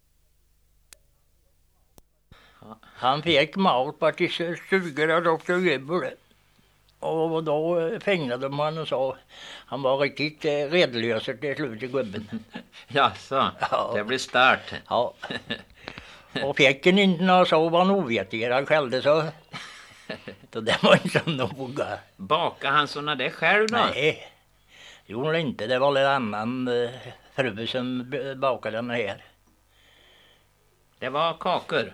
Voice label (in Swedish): Tvärred, dialekter; Svenska sagor; Folkminnen